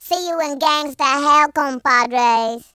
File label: "bye bye", Gangsta; speech